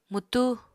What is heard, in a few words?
"mwtú", Apatani